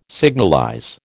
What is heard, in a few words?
"signalize", American